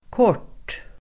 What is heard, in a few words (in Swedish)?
"kort", Swedish